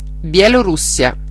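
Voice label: "belarus", Italian; geography; gcompris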